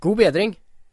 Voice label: get well soon, norwegian; God bedring

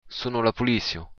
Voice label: police, occitan

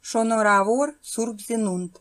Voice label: christmas, armenian

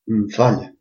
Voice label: excuse me, albanian gheg

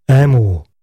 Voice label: i love you, portuguese

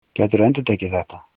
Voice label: say again, icelandic

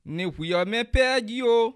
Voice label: bon voyage, bassa

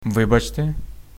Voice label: sorry, ukrainian

